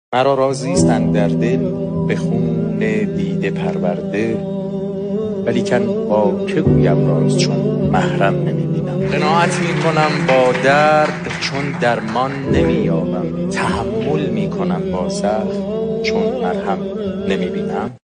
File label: declamation